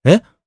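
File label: Vox, voices, Japanese; Evan; kingsraid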